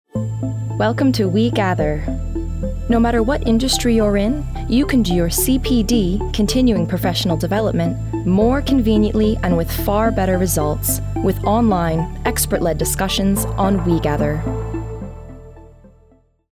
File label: Commercial; WeGather